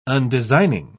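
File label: "undesigning", British English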